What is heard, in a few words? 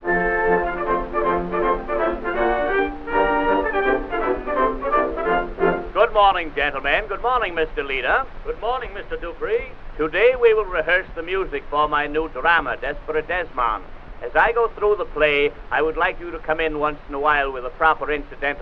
monologue, vaudevil